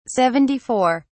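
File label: "seventy four", British English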